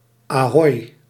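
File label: "ahoi", Dutch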